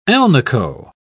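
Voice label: "alnico", British English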